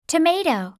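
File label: "tomato", English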